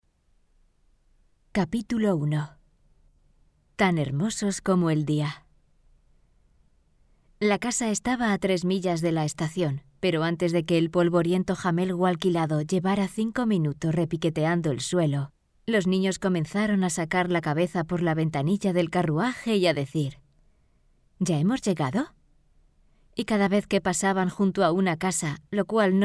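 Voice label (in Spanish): otros España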